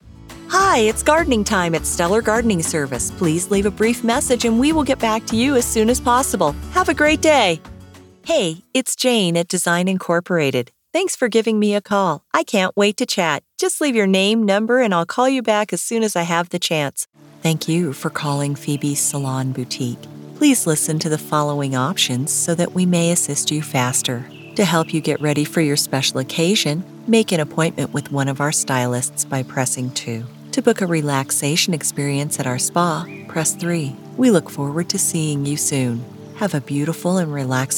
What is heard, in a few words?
Voicemail